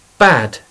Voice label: "bad", English